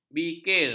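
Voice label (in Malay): "bikir", Malay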